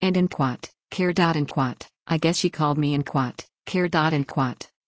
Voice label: Female Human